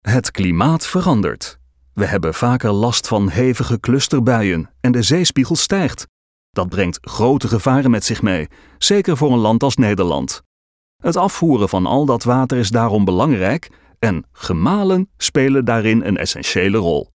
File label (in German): niederlaendisch werbespot; sprecher